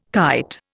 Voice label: "kite", Indian

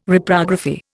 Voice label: "Reprography", Indian

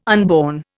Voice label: "unborn", Indian

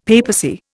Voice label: "papacy", Indian; Dictionary

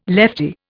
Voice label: "leftie", Indian

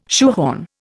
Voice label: "shoehorn", Indian

